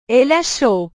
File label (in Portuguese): "ele achou", portugues; verbos